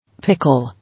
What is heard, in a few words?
"Pickle", English